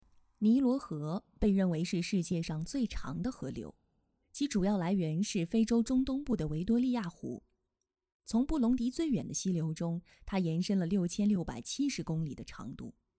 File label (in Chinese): 纪录片配音 缩混